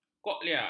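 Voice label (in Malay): "koklea", Malay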